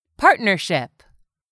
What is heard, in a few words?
"partnership", English; 3000 words